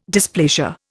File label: "dysplasia", Indian